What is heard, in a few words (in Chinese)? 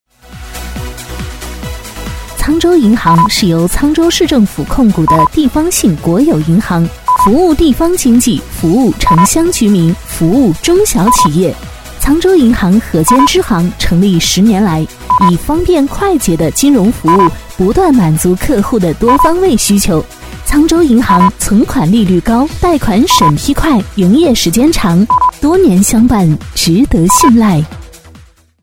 女 促销 银行; 沧州银行